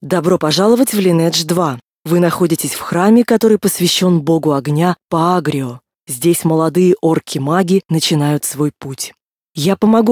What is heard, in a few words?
tutorial voice, ru; lineage2